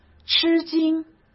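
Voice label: "吃惊", Mandarin Chinese; chinese-flashcards; hsk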